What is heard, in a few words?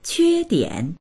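"que1dian3", Mandarin Chinese; quēdiǎn; 缺点; 欠点、弱点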